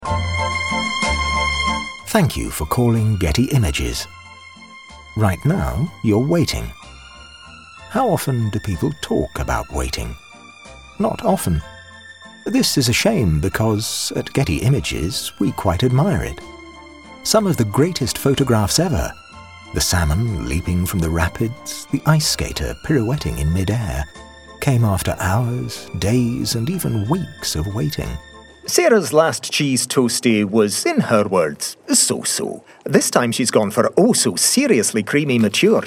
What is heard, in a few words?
english british commercial